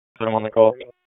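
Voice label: Police Southwest District Dispatch, p25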